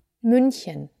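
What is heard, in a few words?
"München", German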